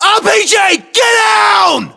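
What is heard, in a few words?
threat rpg, battlechatter, British; english; uk; localized english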